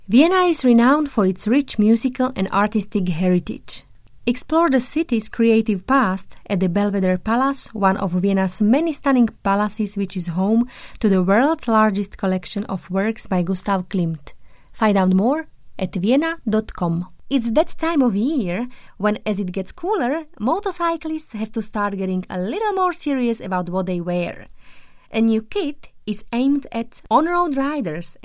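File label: Czech English; Czech, Eastern European, Male, Home Studio, 30s-40s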